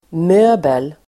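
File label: "möbel", Swedish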